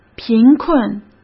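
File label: "贫困", Mandarin Chinese; chinese-flashcards; hsk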